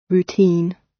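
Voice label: "routine", British; Life, leisure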